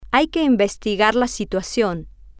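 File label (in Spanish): hay que investigar la situacion, Spanish, female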